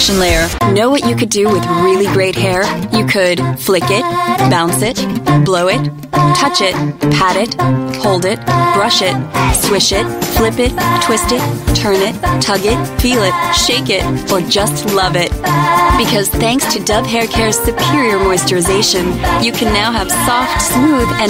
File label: US English Womens Beauty, English US Female